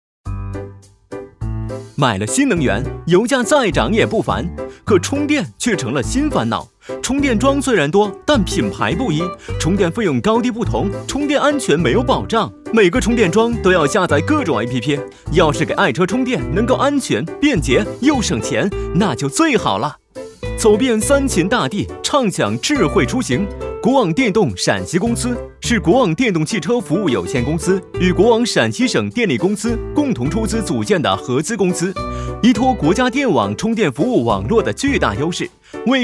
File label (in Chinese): 动画配音; 国网MG动画配音